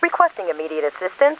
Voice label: cops, English; Driver